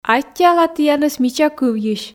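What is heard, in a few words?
"аттьӧала тіянӧс мича кывйысь", Komi, female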